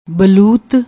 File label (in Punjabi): "baloot", Punjabi